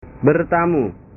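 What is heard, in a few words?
"bertamu", Indonesian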